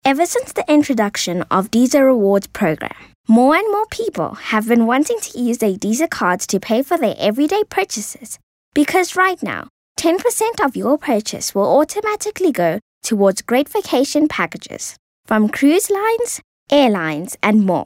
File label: English Conversational